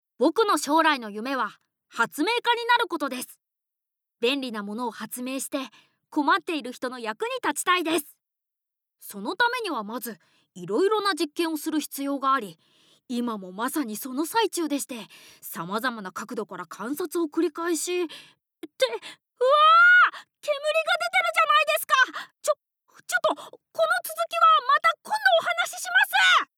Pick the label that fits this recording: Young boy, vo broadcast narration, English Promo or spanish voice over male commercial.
Young boy